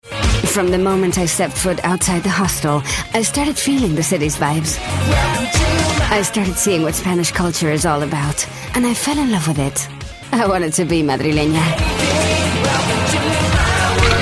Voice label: English; Travel Adventure

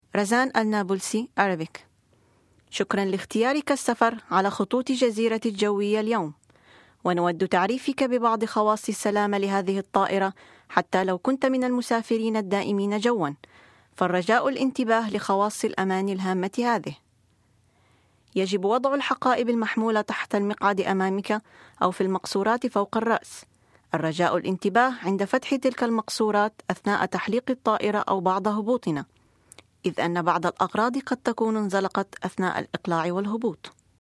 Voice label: Arab

